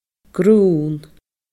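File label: "grunn", Scottish Gaelic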